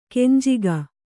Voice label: "ಕೆಂಜಗ", Kannada

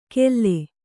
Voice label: "ಕೆಲ್ಲೆ", kannada

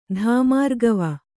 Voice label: "ಧಾಮಾರ್ಗವ", Kannada

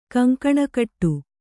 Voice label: "ಕಂಕಣಗಟ್ಟು", Kannada

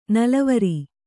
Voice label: "ನಲವರಿ", Kannada

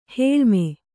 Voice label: "ಹೇಳ್ಮೆ", Kannada

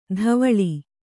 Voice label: "ಧಾವಳಿ", Kannada

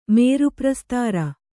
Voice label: "ಮೇರುಪ್ರಸ್ತಾರ", Kannada

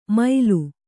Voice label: "ಮೈಲು", Kannada

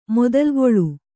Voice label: "ಮೊದಲುಗೊಳ್", Kannada

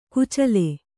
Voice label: "ಕೊಚ್ಚಲು", Kannada

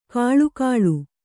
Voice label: "ಘಳಘಳ", Kannada

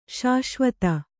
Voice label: "ಶಾಶ್ವತ", Kannada

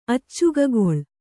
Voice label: "ಅಚ್ಚಗಗೊಳ್", Kannada

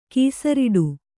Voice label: "ಕೊಸರಾಡು", Kannada

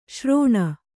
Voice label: "ಶ್ರೋಣ", kannada

